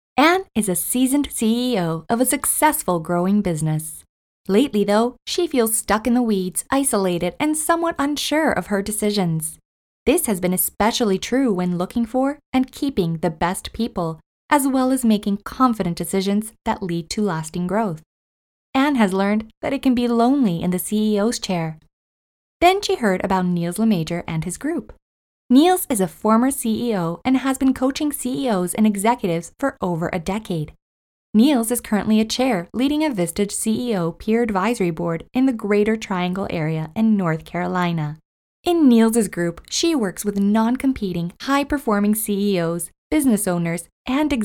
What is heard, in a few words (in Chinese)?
清晰自然叙述